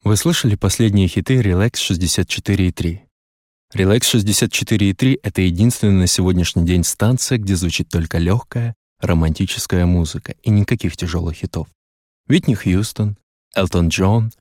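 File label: Russian, commercial; GoLocalise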